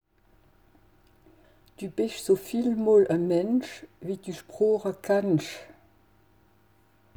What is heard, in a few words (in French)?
Alsacien traduction phrase